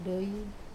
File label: "doi", money, Wamesa